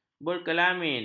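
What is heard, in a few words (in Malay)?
"berkelamin", Malay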